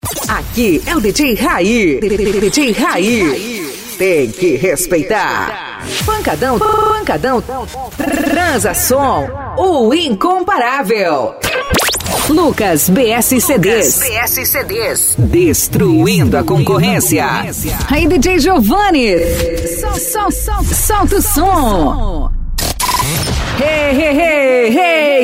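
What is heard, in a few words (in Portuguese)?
♀, vozes para vinhetas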